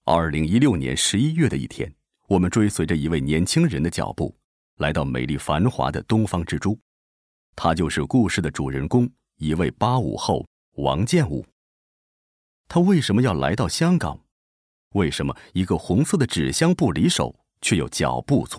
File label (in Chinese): 男 中央台7套解说, 国语男声配音